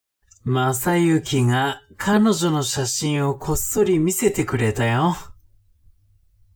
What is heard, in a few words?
man